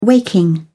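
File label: "waking", British English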